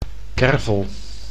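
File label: "kervel", Dutch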